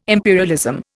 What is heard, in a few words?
"imperialism", Indian